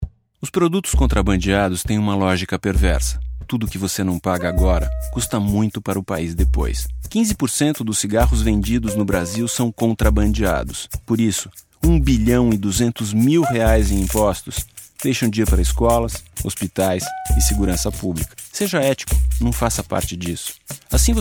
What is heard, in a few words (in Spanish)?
spot contrabando